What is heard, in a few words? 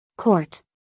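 "court", American English, female; everyone-can-use-english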